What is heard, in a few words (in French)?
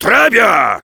Heavy yes, fr; "Très bien."